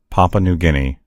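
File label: "papua new guinea", English; gcompris; geography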